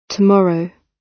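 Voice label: "tomorrow", British English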